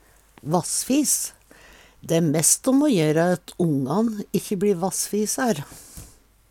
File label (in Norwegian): vassfis, Numedalsmål; Dæ æ messt om o jera at ikkje ongan bLi vassfisar.; Jakt, fangst, fiske